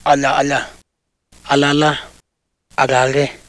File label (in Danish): mid waghi; [al̪a al̪a alala aʟaʟe]